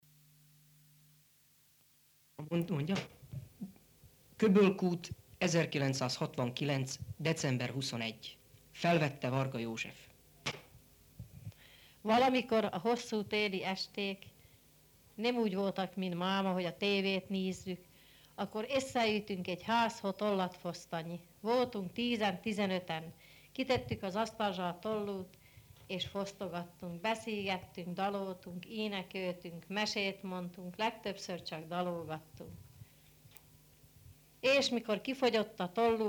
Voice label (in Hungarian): nyelvjaras gyujtesek